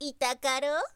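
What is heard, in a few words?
girl, "itakaro"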